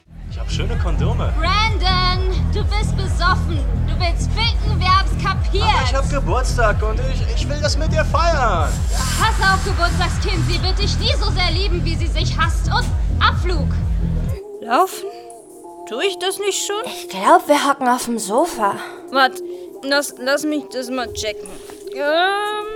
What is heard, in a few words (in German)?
Synchron